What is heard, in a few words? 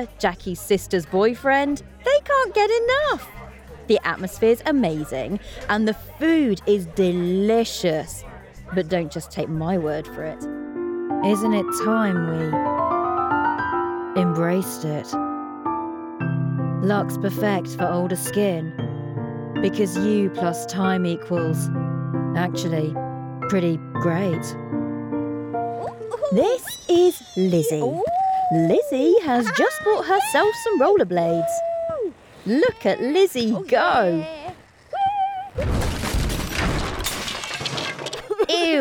Commercial; Commercial Reel